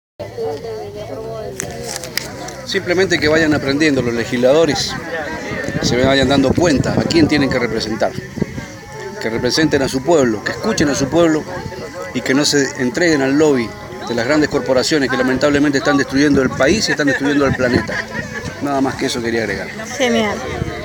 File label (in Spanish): Nota de voz